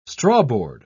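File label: "strawboard", English, British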